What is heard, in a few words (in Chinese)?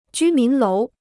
"居民楼", Chinese